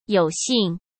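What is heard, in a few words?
"有幸", Mandarin Chinese; hsk; chinese flashcards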